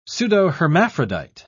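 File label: "pseudohermaphrodite", British English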